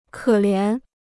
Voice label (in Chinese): "可怜", Chinese